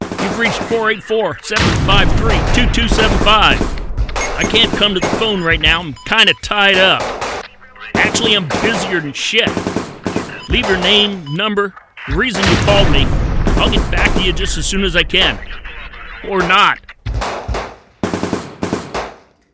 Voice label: my voicemail